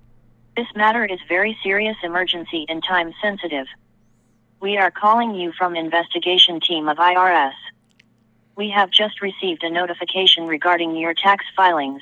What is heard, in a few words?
IRS Scam Voicemail